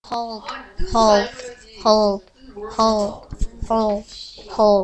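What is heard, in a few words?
"hold", spoken word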